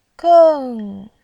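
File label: "geng", Mandarin Chinese, fourth tone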